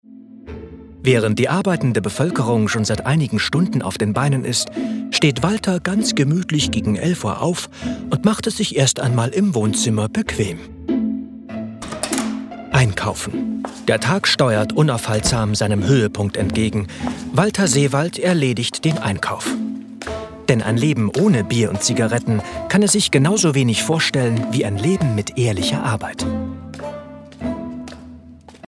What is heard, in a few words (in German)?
Dokutainment charmant